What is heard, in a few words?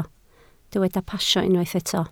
"pasio", Welsh